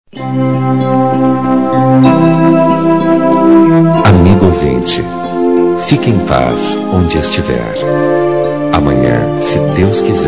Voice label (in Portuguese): Boa Noite Encerramento Da Noite, Vinhetas